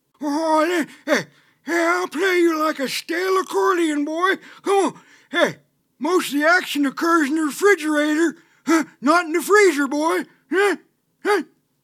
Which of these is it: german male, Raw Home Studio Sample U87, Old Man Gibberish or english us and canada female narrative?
Old Man Gibberish